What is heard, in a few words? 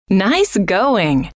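nice going, female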